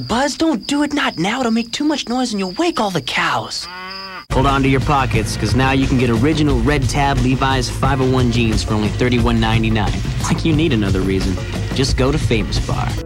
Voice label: Commercial